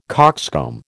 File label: "coxcomb", American